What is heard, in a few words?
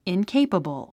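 "incapable", English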